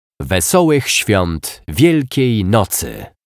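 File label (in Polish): "Wesolych swiat Wielkiej Nocy", Polish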